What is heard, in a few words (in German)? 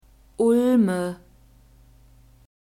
"ulme", German; nouns gender feminine